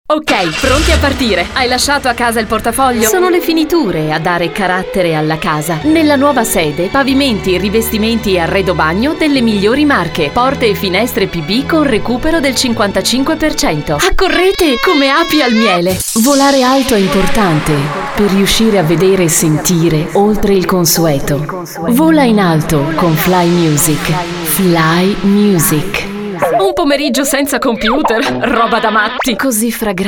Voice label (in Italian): speaker femminile